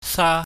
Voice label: "sa", pingyam